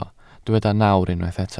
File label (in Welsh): "nawr", Welsh